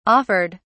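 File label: "offered", British English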